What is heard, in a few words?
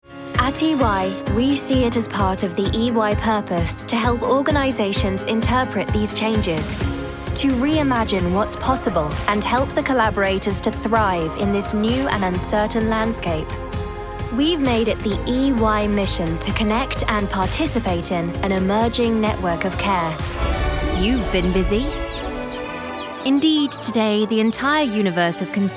Corporate